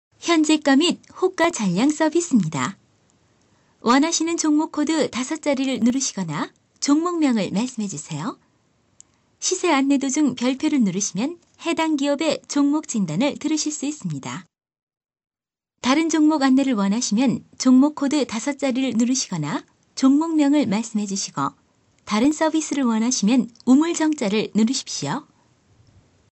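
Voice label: Korean, female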